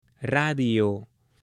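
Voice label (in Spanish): "radio" Spanish, male; UTENSILIOS; AppLenguaje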